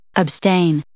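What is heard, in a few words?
"abstain", English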